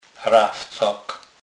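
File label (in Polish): "rawcak", Kurpie dialect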